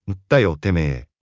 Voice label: anime